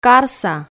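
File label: "карса", Komi, female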